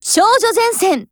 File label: title call JP; Glock17